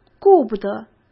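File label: "顾不得", Mandarin Chinese; chinese flashcards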